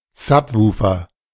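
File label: "Subwoofer", German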